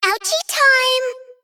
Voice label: Female Faerie Attack